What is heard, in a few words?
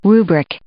"rubric", English